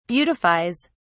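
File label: "beautifies", British English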